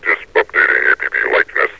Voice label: disp updating apb, metropolice vo; Half Life Alyx